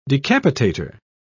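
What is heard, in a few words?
"decapitator", English, British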